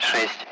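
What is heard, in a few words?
Speech Russian; Allied Flight; Digits; DCS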